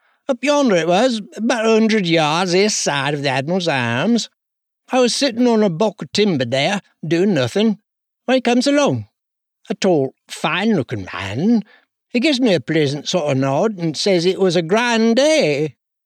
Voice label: yorkshire